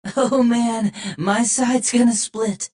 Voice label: battle cry; Adachi

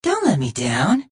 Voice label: jeers; tf; redsun; adachi; spy

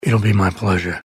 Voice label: battlecry

